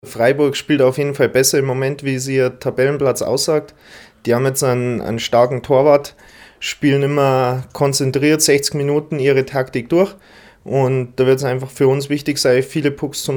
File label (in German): O-Ton Stürmer zum Spiel gegen Freiburg; O-Ton Stürmer; Eispiraten